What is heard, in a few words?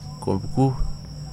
"stone", Wahgi; lae unitech